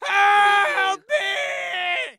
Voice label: Scream Help Me Male; sound effects bible

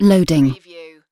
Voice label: "loading", UK female; lynne publishing

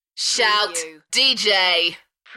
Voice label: UK female, "Shout dj", DJ shout; beanstalk audio

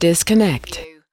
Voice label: US female disconnect; lynne publishing

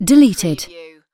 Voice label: UK female deleted; lynne publishing

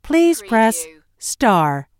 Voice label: US female phone prompt Please press star; lynne publishing

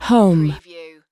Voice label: US female, "home"; lynne publishing

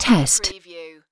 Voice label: "test", UK female; lynne publishing

